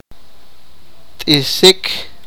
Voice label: sipakapense; sew

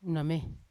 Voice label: "name" cloud, Yawa

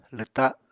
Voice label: "lwta", Apatani

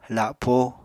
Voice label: "lapó", Apatani

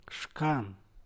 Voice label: "shcan", San Mateo Macuilxochitl Zapotec; banca larga de madera

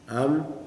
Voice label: "breast", kuman

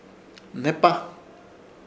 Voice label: "Hnepa" muy viejo, Ishir Chamacoco

